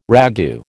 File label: "ragout", American